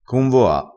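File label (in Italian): "convoa", dialettale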